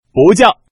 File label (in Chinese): bujiao, man